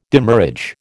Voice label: "demurrage", American

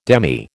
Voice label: "demy", American

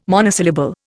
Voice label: "monosyllable", Indian

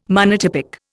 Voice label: "monotypic", Indian

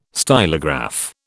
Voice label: "stylograph", British

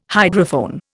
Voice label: "hydrophone", Indian

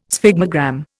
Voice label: "sphygmogram", Indian

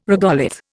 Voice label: "regolith", Indian

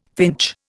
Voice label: "finch", Indian

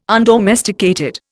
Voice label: "undomesticated", Indian

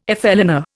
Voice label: "flâneur", Indian

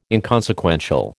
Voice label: "inconsequential", American

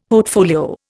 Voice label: "portfolio", Indian; Dictionary

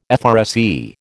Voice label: "FRSE", American

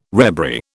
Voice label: "rebury", British

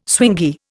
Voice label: "swingy", Indian

